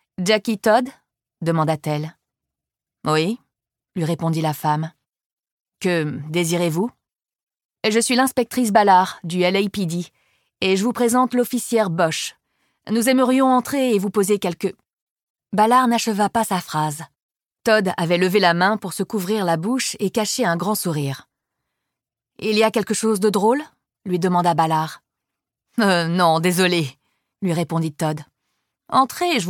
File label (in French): LIVRE AUDIO; Renée Ballard; « A qui sait attendre » de Michael Connelly; Série d'enquêtes avec Harry Bosch; audiolib